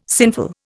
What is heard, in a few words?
"sinful", Indian